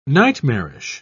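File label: "nightmarish", English, British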